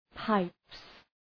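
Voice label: "pipes", English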